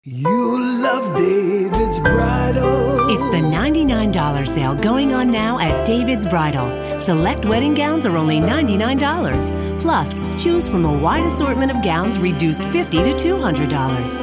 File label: commercial, voice talent; talent demos